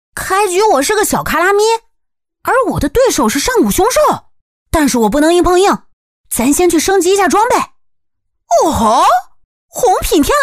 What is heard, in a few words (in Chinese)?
女, 游戏信息流